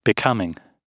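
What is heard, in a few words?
"becoming", British English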